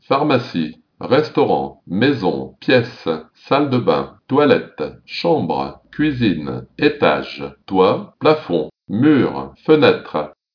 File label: french